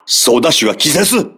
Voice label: voice message helmsman contused, crew ships japanese